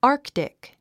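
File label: "arctic", English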